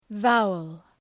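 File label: "vowel", English; {‘vaʋəl}; (Ουσιαστικό)● αφιερώτης● φωνήεν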